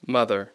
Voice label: "mother", English